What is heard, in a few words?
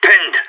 pinned down, radio; SAS